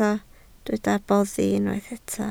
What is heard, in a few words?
"boddi", Welsh